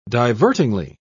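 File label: "divertingly", British English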